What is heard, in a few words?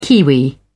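"kiwi", British English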